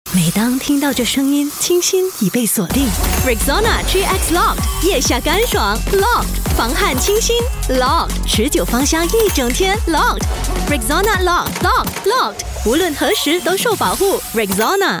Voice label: Mandarin Announcer, Style: Gentle & Soft, Confident; 轻柔自信; Enfagrow